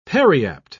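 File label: "periapt", British English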